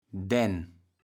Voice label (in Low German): "Dänn", kaevels platt